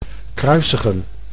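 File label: "kruisigen", Dutch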